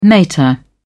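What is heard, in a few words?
"mater", British English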